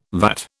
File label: "VAT", British